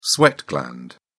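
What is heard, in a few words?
"sweat gland", British English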